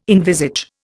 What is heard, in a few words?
"envisage", Indian